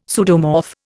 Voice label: "pseudomorph", Indian; Dictionary